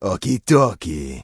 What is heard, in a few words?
Troll Male Shaman NPC Farewell